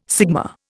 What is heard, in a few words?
"sigma", Indian